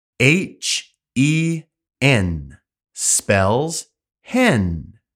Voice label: "Hen", english pronunciation; spelling